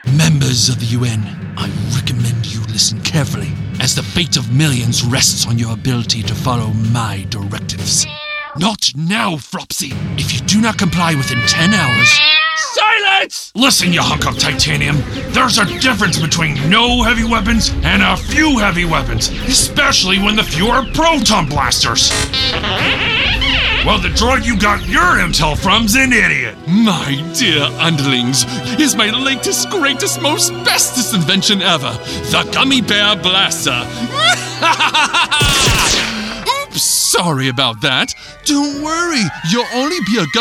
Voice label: Animation